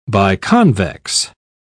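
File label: "biconvex", British English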